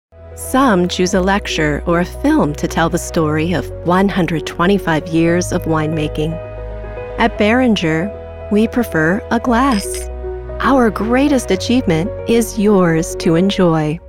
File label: Commercial